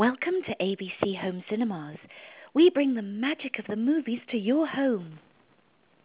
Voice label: Flirt